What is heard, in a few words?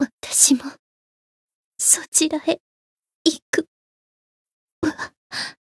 retire, jp; toova